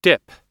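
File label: "dip", English; oxford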